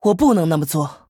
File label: Female Human ERROR PLAY, zh